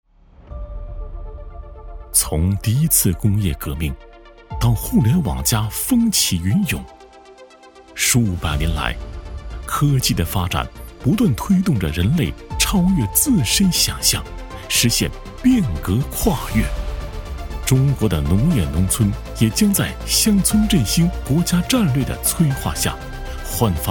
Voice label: 男 专题; 京信硅谷集团

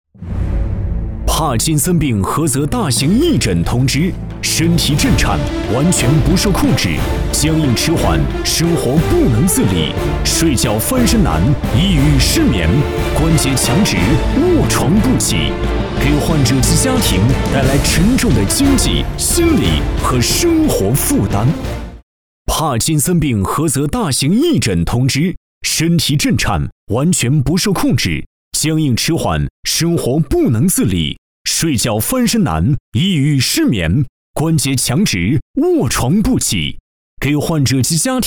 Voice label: 男 广告 医药; 大力医药

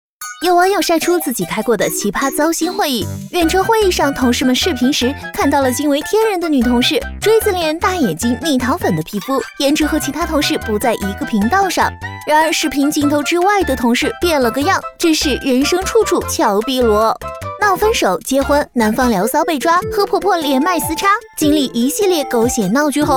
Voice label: 女 恶搞; 飞碟

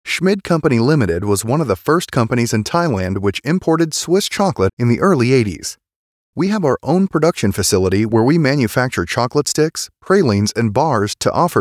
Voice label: 外籍英男 公司介绍

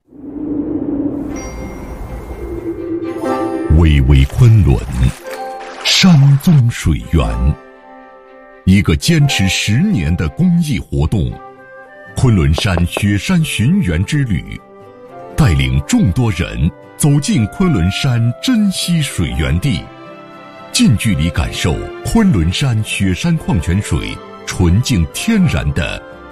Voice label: 男 tvc宣传片; 昆仑山矿泉水

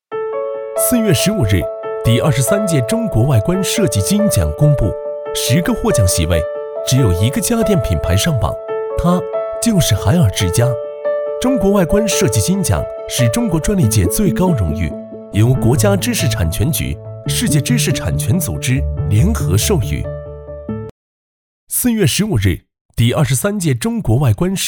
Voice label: 男 宣传片 年轻科技

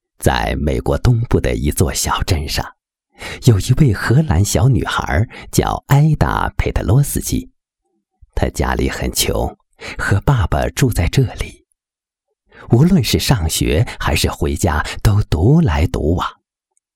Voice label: 男 旁白, 国语男声配音

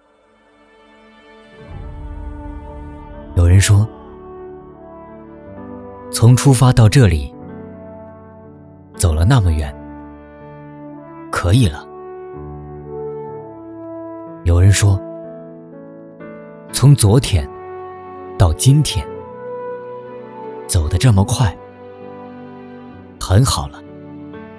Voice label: 男 走心旁白

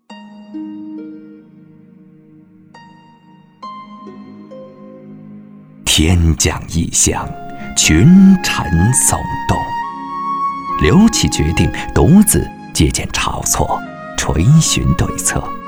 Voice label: 男 专题 帝陵

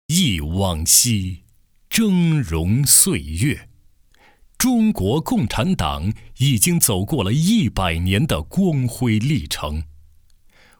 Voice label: 男 党政宣传; 我和我的党支部

